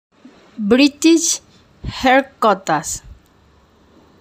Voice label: british